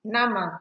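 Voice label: "nama", Nigerian Pidgin, female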